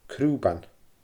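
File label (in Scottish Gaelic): "crùban", Scottish Gaelic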